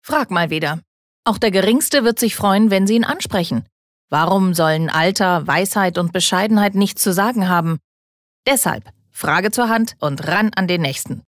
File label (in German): Werbung, Energisch